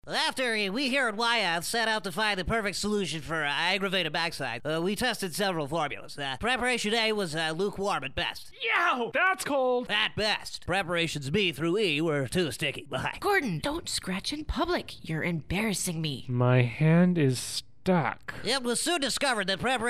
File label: Commercial; Preparation H